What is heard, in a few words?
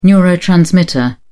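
"neurotransmitter", British English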